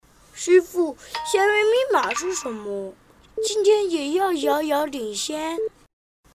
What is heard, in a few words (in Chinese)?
大音-男真童-角色; 真童配音